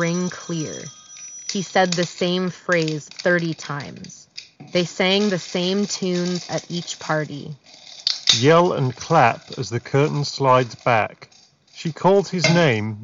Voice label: Jabra Elite 5 Office microphone sample